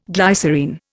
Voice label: "glycerine", Indian

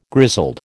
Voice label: "grizzled", American

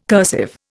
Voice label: "cursive", Indian

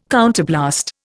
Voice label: "counterblast", Indian

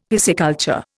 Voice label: "pisciculture", Indian; Dictionary

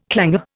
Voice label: "clanger", Indian

